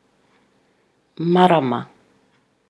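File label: "marama", Māori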